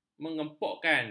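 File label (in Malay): "mengempukkan", Malay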